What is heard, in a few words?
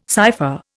"cipher", Indian